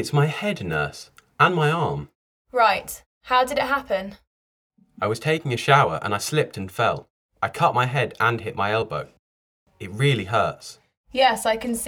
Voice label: Conversation; Bedside Manner Beginner